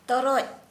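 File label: Santali female ash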